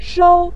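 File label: "shou", Mandarin Chinese, neutral tone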